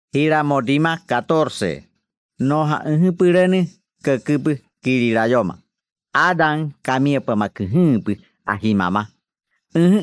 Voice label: audiobook Yanomamo; No Ja Öjöbölonö, Cäcöbö Quililayoma